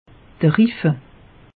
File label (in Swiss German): "riffe", alsace; meteo